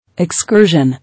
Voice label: "Excursion", English

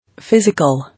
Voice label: "Physical", English

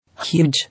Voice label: "Huge", English

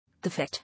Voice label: "Affect", English

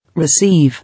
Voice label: "Receive", English

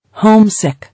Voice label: "Home sick", English

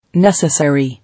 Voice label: "Necessary", English

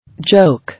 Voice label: "Joke", English

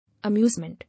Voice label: "Amusement", English

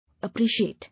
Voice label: "Appreciate", English

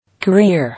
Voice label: "Career", English

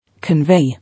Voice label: "Convey", English

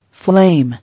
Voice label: "Flame", English

